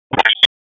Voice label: Police Downtown District Tac 1, P25; Raleigh; wake

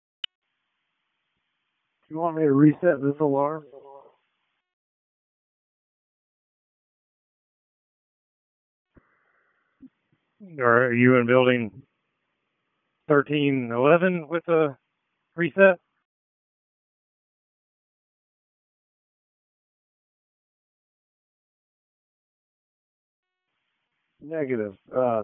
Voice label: FIRE OPS, p25; FIRE OPS 1; Wake

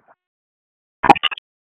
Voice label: Raleigh Police Northeast District Tac, p25; Wake

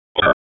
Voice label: Raleigh Police Northeast District Tac, p25; wake

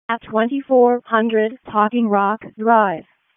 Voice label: EMS ALERT, p25; wake p25

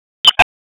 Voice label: Police Southwest District Tac 1; Raleigh; wake; p25

